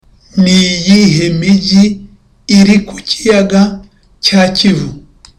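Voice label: Kinyarwanda, Loudly; Ni iyihe mijyi iri ku kiyaga cya Kivu?; Which cities lie on Kivu Lake?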